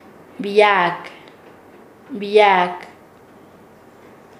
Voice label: "aparecer", Nasa Yuwe; paez